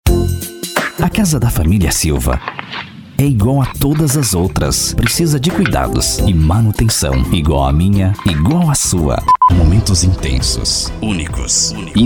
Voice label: locução para vídeo voz locutor

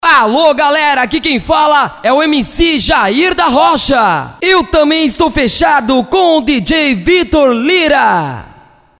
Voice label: vinheta

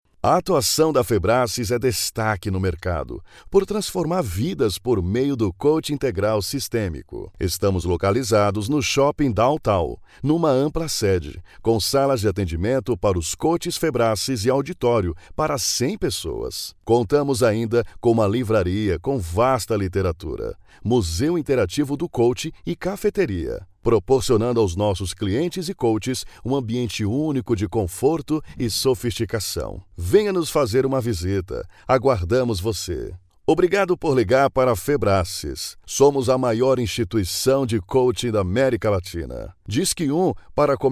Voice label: espera telefonica

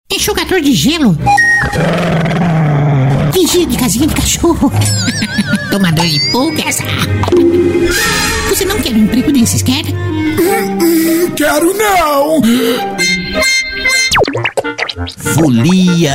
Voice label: voz caricata

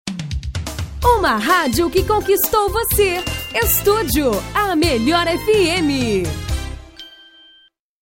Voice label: locutora uma radio que conquistou voce

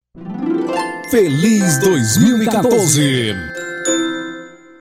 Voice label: vinheta feliz

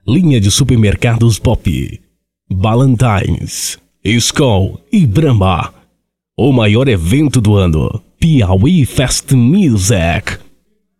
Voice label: offs locucao

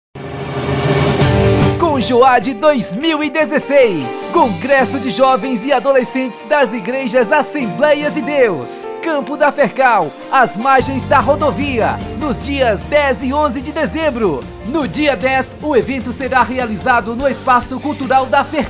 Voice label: chamadas vinhetas para festas voz locutor

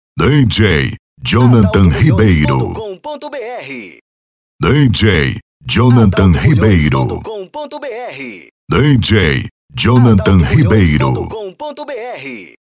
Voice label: vinheta para djs, banco de vozes; vinhetas para djs

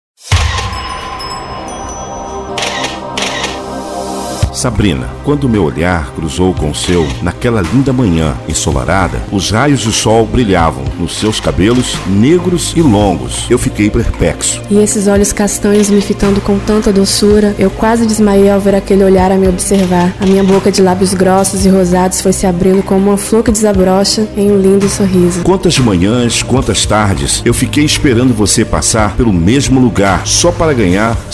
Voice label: poema dueto

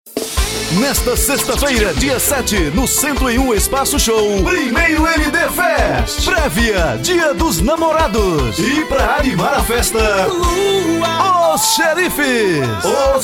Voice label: radio chamada

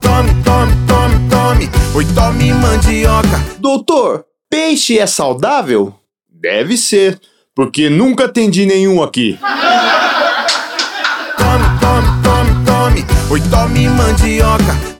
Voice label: spot piada; rei da mandioca

